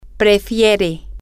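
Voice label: he prefers, spanish, female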